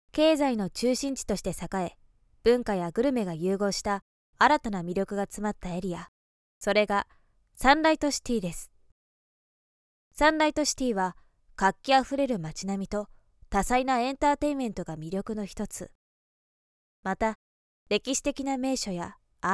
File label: female; 落ち着いた